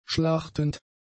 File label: "schlachtend", German; adjectives